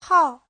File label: "hào", Chinese; 号; (danh từ) số